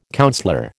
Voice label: "councillor", American

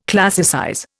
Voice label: "classicize", Indian

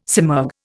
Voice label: "simurg", Indian

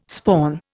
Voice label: "spawn", Indian

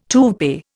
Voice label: "toupee", Indian

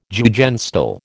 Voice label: "Jugendstil", American

